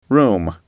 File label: "room", English